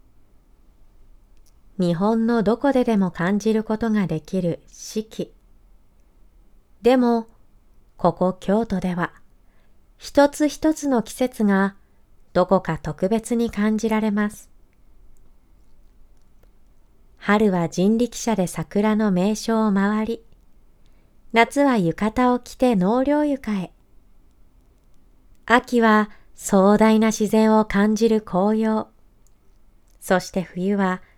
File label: narration